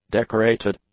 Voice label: "decorated", english; adjectives